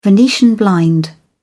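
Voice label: "venetian blind", British English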